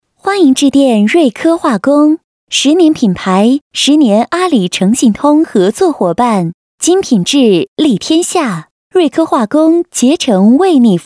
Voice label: 女 彩铃, 国语女声配音; 瑞科化工

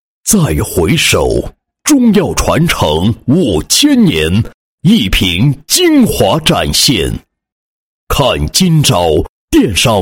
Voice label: 男 宣传广告; 汉珍御典 中式男露延时喷剂

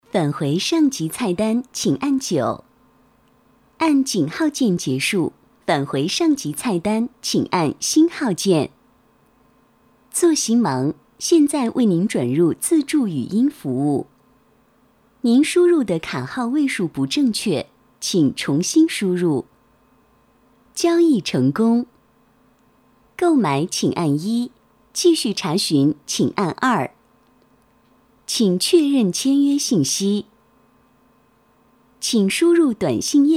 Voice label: 女 语音声讯类样音 甜美 沉稳

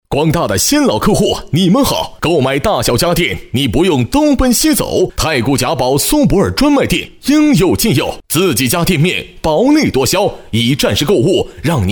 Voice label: 男 促销; 购物购

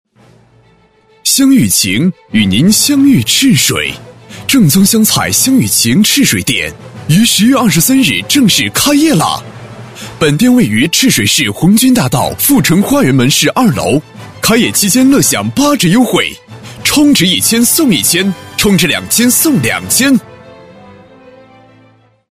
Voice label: 男 广告 开业啦; 湘豫情

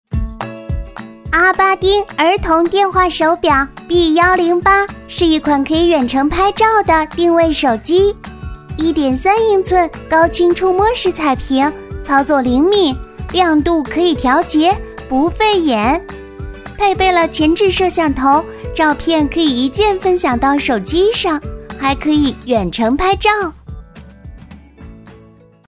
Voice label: 女-角色-女童

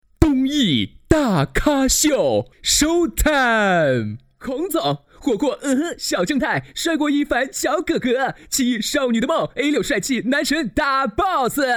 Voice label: 男 平台会宣传 搞怪多变; 东易

